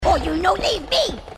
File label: oh you no leave me, grunts